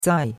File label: "zai4", chinois; Cours-de-Chinois